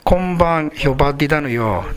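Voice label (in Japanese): "yobareru", 南山形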